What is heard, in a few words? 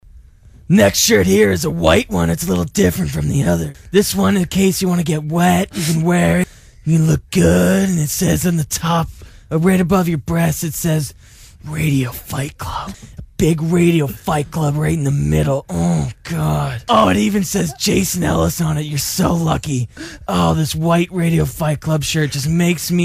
commercials radio